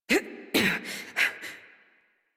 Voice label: Horse Mount Adult; Warframe; Duviri